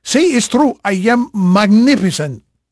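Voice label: Portuguese male, voice; Pirates Of The Caribbean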